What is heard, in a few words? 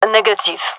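negative, gendarmerie female; vo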